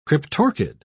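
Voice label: "cryptorchid", British English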